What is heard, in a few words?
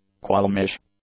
"qualmish", English; adjectives